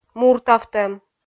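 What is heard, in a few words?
"муртавтӧм", Komi, female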